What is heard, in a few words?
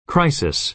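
"crisis", American English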